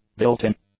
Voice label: "built-in", English; adjectives